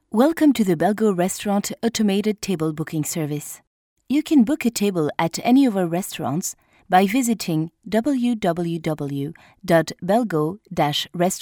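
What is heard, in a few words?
French, France, female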